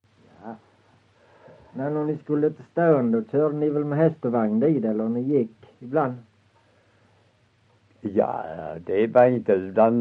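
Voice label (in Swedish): Össjö Skåne, dialekter, svenska sagor; Folkminnen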